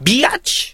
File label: "bitch", expletives